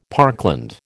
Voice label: "parkland", American

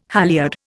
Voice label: "halliard", Indian

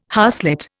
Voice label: "harslet", Indian

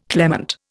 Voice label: "clement", Indian

